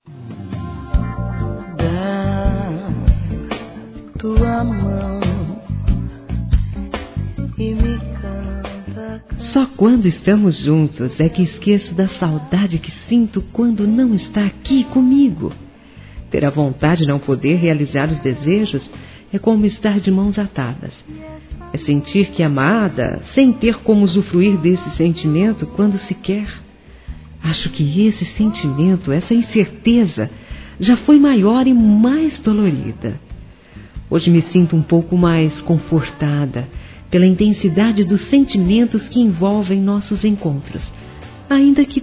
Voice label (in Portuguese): Variados Româtica para Amante Masculino